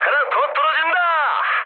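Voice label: Korean player killed enemy mood low; speech; War Thunder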